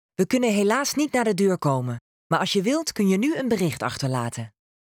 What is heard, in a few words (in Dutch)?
Ring Nederlandse snelle antwoorden